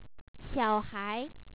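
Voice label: "xia3ohai2", Chinese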